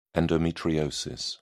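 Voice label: "endometriosis", British English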